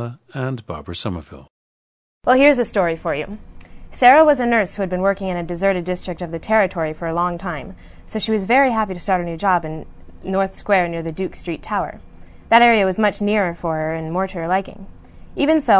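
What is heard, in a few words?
florida, International Dialects of English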